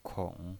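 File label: "kong", Mandarin Chinese, third tone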